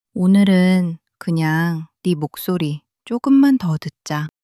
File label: sadness, monologue